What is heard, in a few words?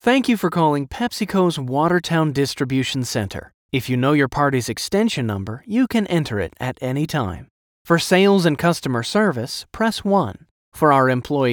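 IVR Corporate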